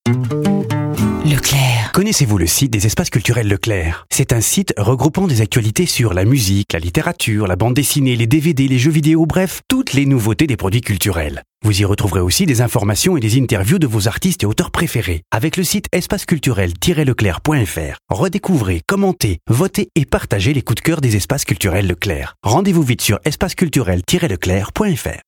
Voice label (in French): radio avenant convaincant vif; LECLERC